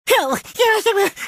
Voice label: pain; tf; crash